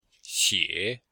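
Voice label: "xie3", Chinese; Cours de Chinois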